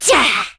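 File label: Vox Landing, Korean; heroes; Cecilia; kingsraid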